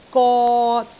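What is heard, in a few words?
"got3", Cantonese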